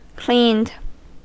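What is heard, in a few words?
"cleaned", English, US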